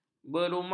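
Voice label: "berumah", Malay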